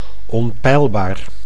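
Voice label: "onpeilbaar", Dutch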